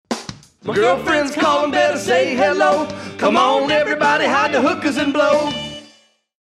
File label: Uh Oh; GF Calling